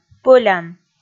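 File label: "пӧлян", Komi, female; kpv audio dict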